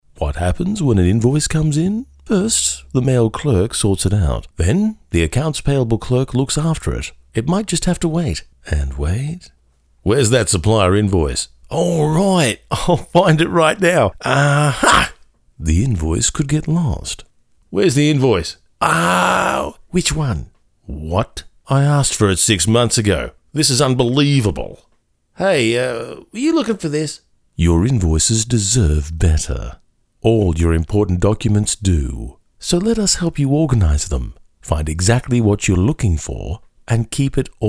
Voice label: Different Accented Characters

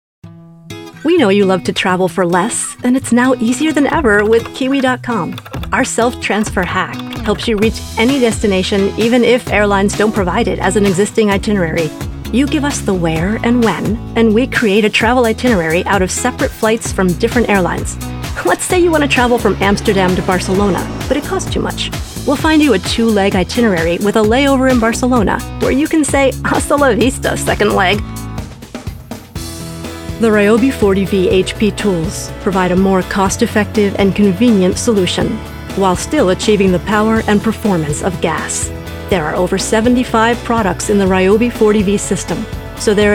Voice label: Explainer

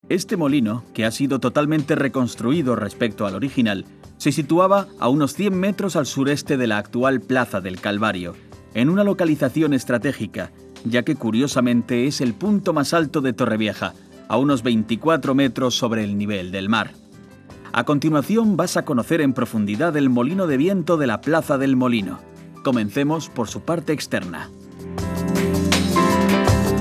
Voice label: Documentary

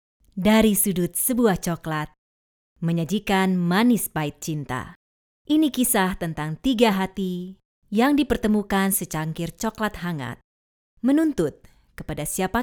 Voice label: Movie Trailer Indonesian Female

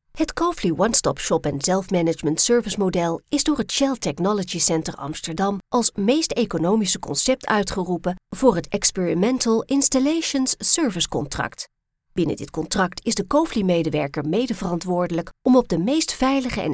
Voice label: Corporate Narration

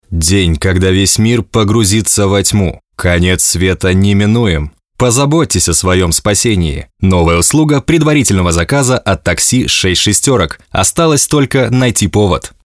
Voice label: Russian

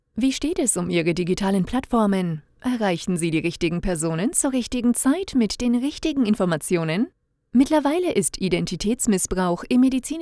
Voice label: Explainer Video Dry

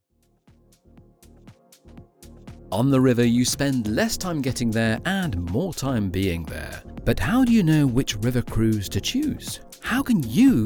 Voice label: Commercial Voice Reel

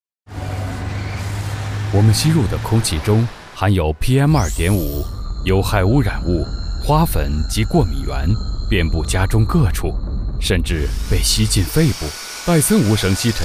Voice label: Mandarin Commercial

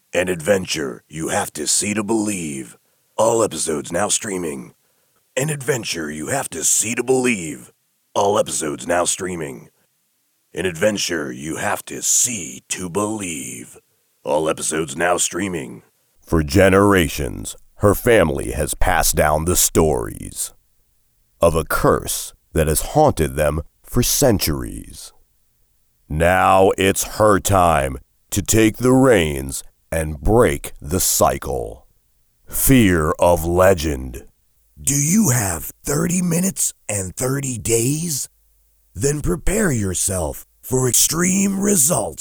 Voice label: Trailer Demo

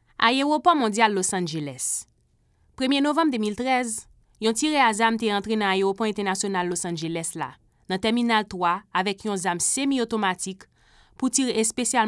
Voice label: Creole

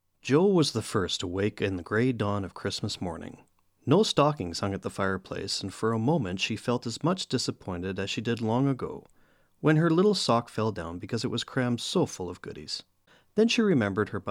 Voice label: Narration reel no slate

